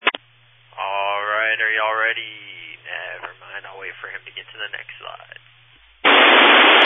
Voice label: Family Radio Service (FRS)